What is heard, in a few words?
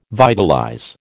"vitalize", American